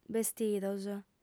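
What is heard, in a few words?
"bestidos", female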